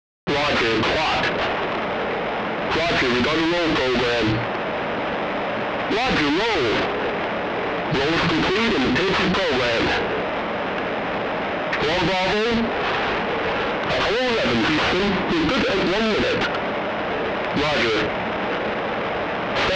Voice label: Radio Voice; 344 Audio; Conspiracy Theory

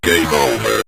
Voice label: game Over, Synth Voice; Kenney's Sound Pack

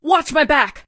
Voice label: "watch my back", female; Voiceover; war; Kenney's Sound Pack

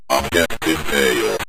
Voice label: objective Failed, Synth Voice; Kenney's Sound Pack

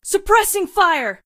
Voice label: "supressing fire", female voice; war; Kenney's Sound Pack; Voiceover Pack

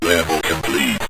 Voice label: level Complete, Synth Voice; Kenney's Sound Pack

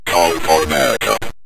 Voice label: call For Backup, Synth Voice; Kenney's Sound Pack

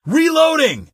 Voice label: war reloading, Male; Kenney's Sound Pack; Voiceover

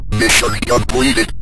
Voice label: mission completed, Synth Voice; Kenney's Sound Pack; Synth Voice 1

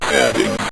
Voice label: "settings", Synth Voice; Kenney's Sound Pack

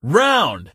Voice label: "round", Male; Kenney's Sound Pack; Voiceover Pack

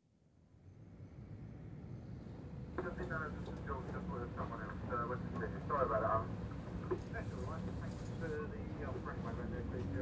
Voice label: Ambulance Service R T Chat (Indis; Emergency; BBC Sound Effects Library

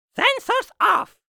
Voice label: troll voice sensors off dry; SpillAudio; Character Troll

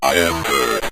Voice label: im Hurt, Synth Voice; Kenney's Sound Pack

